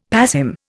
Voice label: "passim", Indian; Dictionary